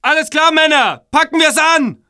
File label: ger attack, german